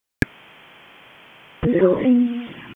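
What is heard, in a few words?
FIRE Operations, p25; FIRE OPS 1; wake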